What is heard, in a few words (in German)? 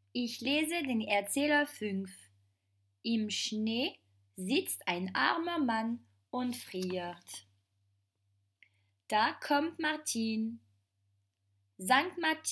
Erzähler